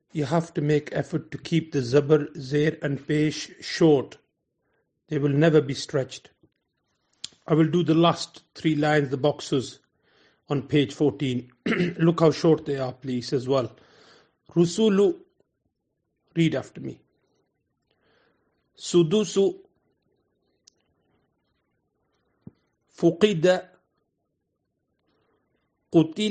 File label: Arabic Letters Exer; Pesh Dhammah